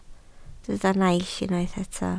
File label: "naill", Welsh